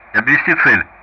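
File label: Speech Sound Russian; Common Player Messages ROPE; DCS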